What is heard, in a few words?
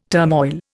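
"turmoil", Indian English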